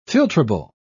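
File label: "filtrable", British English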